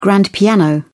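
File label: "grand piano", English, British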